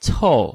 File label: "cou", Chinese